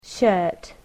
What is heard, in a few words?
"shirt", English